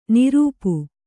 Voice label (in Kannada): "ನೃಪ", Kannada